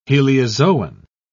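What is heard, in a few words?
"heliozoan", British English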